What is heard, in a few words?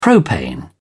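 "propane", British English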